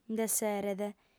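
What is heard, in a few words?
"nd'esseret", Sardinian, female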